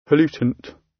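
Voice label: "pollutant", British English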